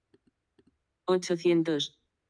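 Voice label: "ochocientos" Spanish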